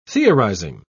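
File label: "theorising", British English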